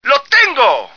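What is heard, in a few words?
got him, male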